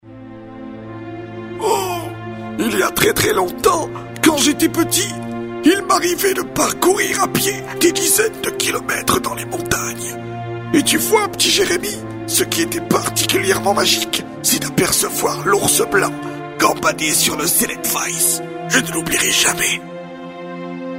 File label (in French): Voix Vieille Et Blessee